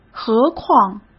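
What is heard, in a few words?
"何况", Mandarin Chinese; chinese-flashcards; hsk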